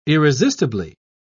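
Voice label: "irresistibly", British English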